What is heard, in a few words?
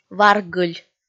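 "варгыль", Komi, female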